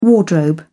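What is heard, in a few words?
"wardrobe", English, British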